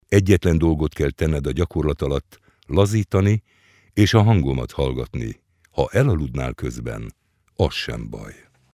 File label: Meditation